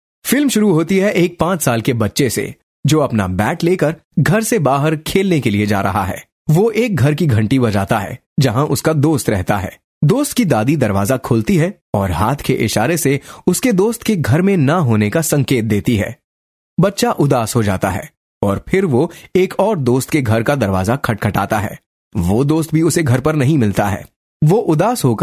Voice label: hindi, male voice

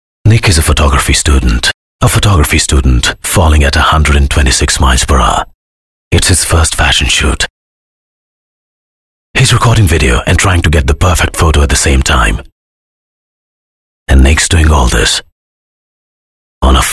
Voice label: English, male voice

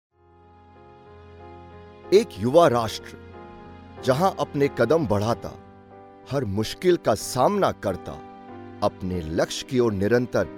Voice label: hindi, male voice

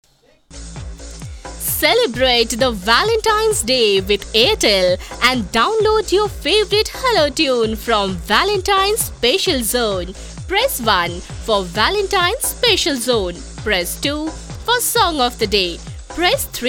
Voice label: english, female voice

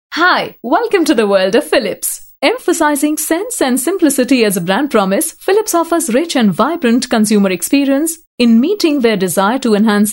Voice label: english vo, female voice; philips

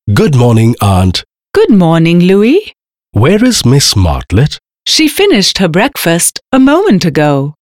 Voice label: female voice

